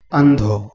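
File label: "h2endho", Proto-Indo-European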